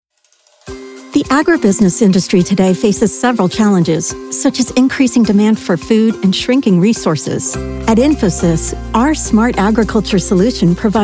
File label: Corporate Narration